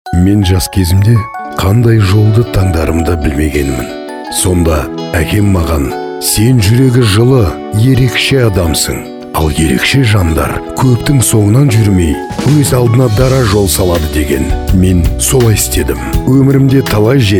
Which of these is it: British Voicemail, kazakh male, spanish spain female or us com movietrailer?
kazakh male